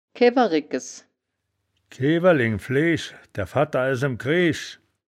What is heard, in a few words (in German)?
"kewe rickes", wittlich